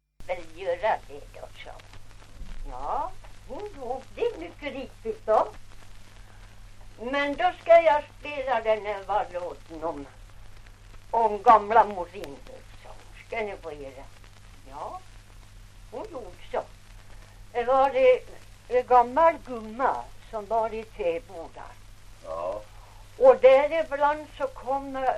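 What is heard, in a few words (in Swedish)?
Ovansjö Gästrikland, dialekter; Folkminnen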